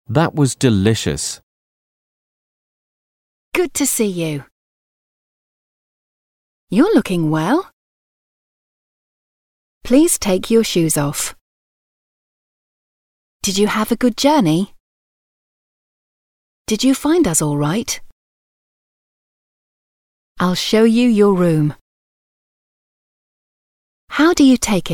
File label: English Sentences